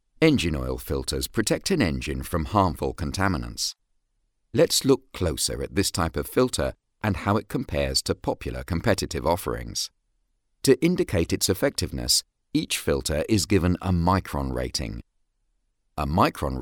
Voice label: male british voice over narrative, english british